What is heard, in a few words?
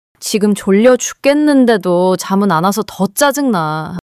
anger; monologue